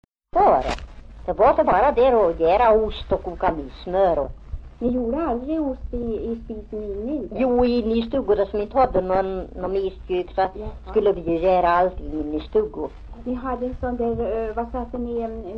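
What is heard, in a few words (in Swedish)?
Järvsö Hälsingland, svenska sagor dialekter; Folkminnen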